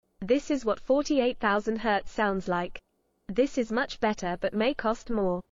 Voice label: 8khz